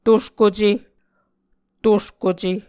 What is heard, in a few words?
"tuskuce", muscogee